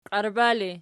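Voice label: "qiarba" ergative, Archi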